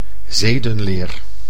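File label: "zedenleer", Dutch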